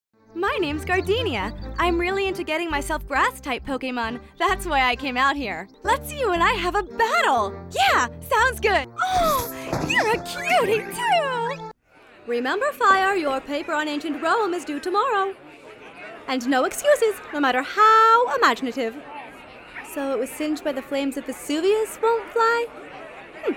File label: Animation